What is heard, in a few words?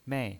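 "mei", pinyin voice, Mandarin Chinese, fourth tone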